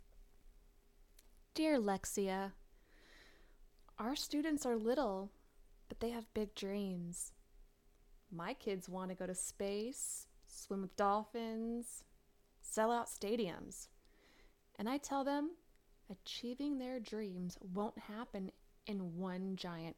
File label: K-12 Commercial